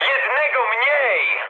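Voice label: player killed enemy mood high, speech Polish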